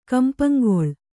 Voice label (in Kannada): "ಕಂಪಂಗೊಳ್", kannada